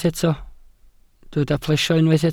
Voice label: "plesio", welsh

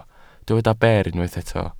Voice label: "ber", Welsh

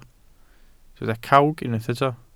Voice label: "cawg", Welsh

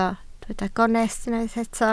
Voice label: "gonest", Welsh

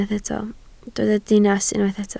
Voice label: "dinas", Welsh

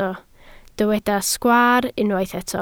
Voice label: "sgwaar", Welsh

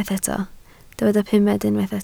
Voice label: "pumed", Welsh

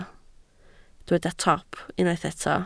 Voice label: "top", welsh

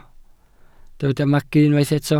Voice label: "magu", Welsh

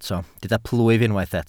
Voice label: "plwyf", Welsh

